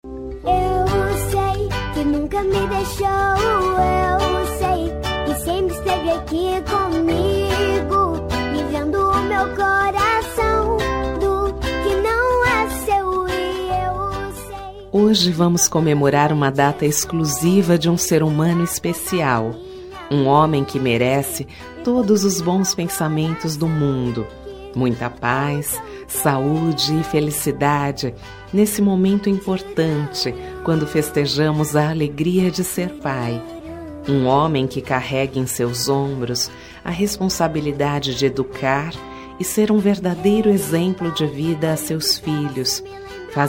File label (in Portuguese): fem plural, Voz Feminina; Dia dos Pais